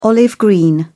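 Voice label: "olive-green", British English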